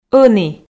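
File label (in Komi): "ӧні", Komi, female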